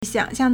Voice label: "xiang3xiang4", Chinese

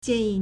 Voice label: "jian4 yi4", Chinese

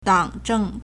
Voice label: "dang3 zheng4", Chinese

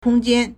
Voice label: "kong1jian1", Chinese

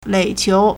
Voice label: "lei3qiu2", Chinese

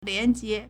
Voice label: "lian2jie2", Chinese; 廉洁; 廉潔; liánjié; adjective; honest

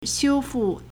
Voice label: "xiu1fu4", Chinese

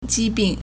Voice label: "ji2 bing4", Chinese

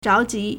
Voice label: "zhao1ji2", Chinese

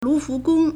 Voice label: "lu4fu2gong1", Chinese

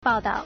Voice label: "bao4dao4", Chinese

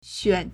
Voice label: "xuan3", Chinese

